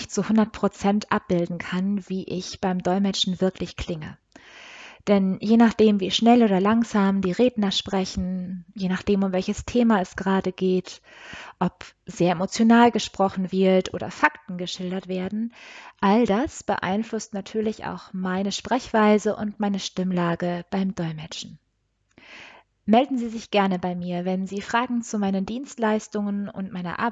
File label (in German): Hoerprobe deutsch